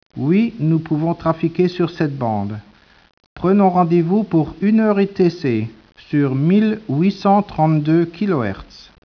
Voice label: speech, French